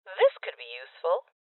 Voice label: Voices Loot Collect Female